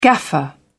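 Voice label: "gaffer", British English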